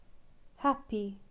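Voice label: "happy", English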